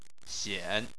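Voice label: "xian", Chinese, third tone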